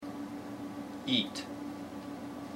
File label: vowel eat; IPA